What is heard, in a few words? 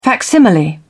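"facsimile", British English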